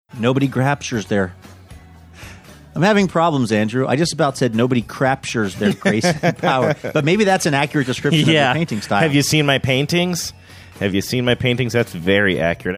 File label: having trouble talking